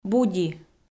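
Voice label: "буди", Komi-Zyrian, female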